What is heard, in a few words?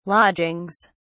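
"lodgings", British English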